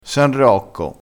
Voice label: "san rocco", vocabolario dialettale

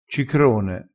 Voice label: "cicrone", crespinese

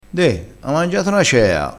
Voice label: "na cea", dialettale crespinese

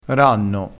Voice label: "ranno", crespinese, vocabolario dialettale

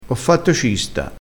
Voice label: "cista", crespinese

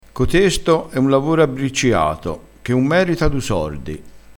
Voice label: "abbricciato", Crespinese

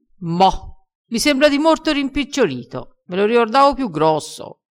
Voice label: "rimpicciolito", crespinese

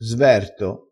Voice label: "sverto", crespinese

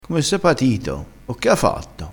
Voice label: "patito", crespinese

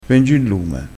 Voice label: "lume", crespinese

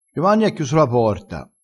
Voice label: "sguscia", crespinese

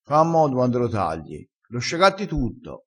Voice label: "sciagatta", crespinese

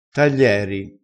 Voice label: "taglieri", vocabolario dialettale

